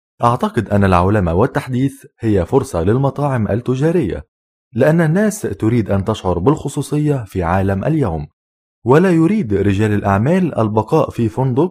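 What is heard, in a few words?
Arabic, male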